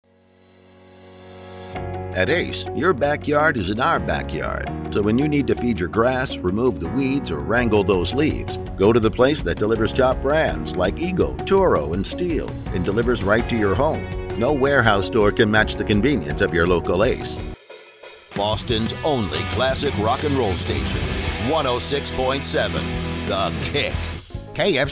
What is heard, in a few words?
RADIO MIXED